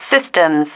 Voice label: "systems", female